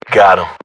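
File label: British inform killfirm generic; battlechatter; localized english; uk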